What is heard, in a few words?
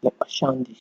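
"lepa shandy", Nigerian Pidgin, male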